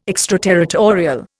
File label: "extraterritorial", Indian